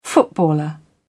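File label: "footballer", British English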